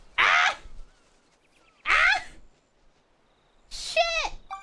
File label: ARRRGH shit, Swearing